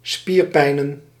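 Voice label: "spierpijnen", Dutch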